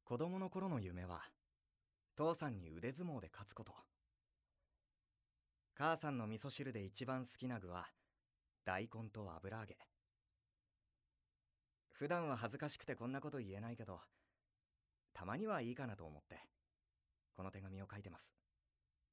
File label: narration